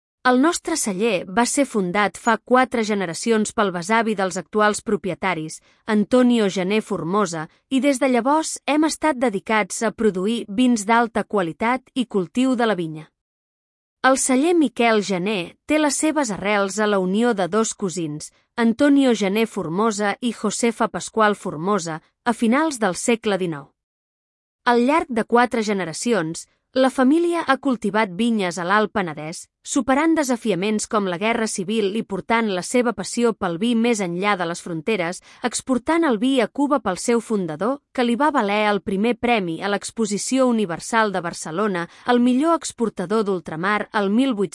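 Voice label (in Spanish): Historia, Catalan; historiabodega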